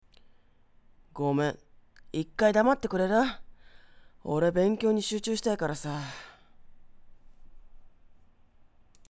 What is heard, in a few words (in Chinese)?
少年 样音